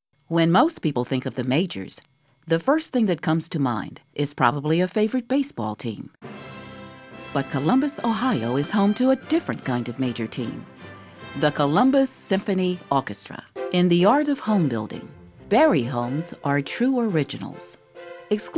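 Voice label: Narrations Audiobooks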